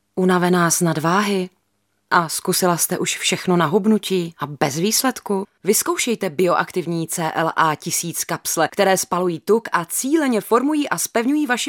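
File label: female Czech commercial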